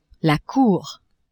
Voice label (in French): "cour", French; maison